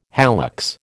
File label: "hallux", American